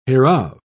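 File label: "hereof", British English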